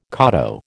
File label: "koto", American